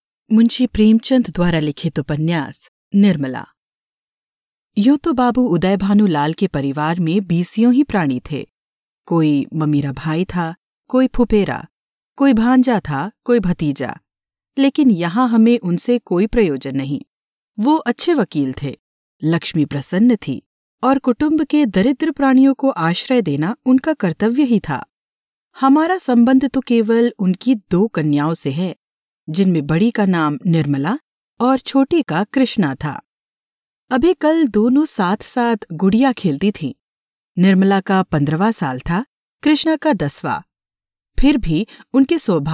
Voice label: Audiobook Hindi